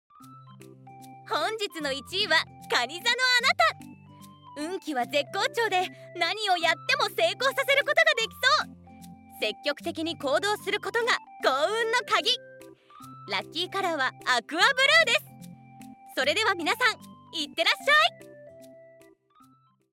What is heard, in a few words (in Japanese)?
朝の天気予報 BGon